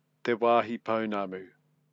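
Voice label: "te wahi ponamu", Māori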